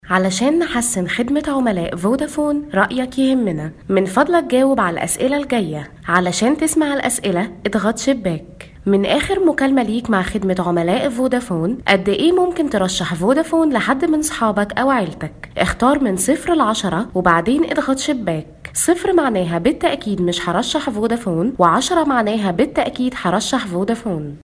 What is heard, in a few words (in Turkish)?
Arapça Kadın